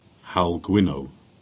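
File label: "Gwynne Moor", Cornish; place-names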